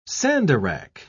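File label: "sandarach", British English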